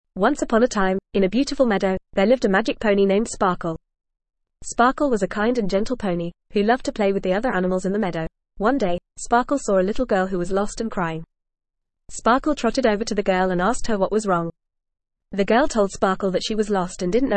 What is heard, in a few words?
ESL Short Stories for Kids FAST reading; The Magic Pony